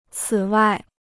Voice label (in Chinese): "此外", Chinese